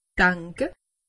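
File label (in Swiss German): "denke", Alsatian; medecine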